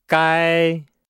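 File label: "gai", Chinese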